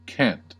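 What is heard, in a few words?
"cant" English, American accent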